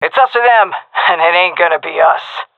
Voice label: round start, fem; vo; agents; Source Model Packs; Counter Strike Model Pack; CS2